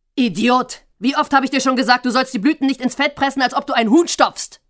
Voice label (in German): Energisch